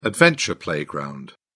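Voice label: "adventure playground", British English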